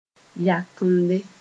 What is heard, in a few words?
"yakemde", Moore